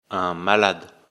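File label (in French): "malade", French; afrikalan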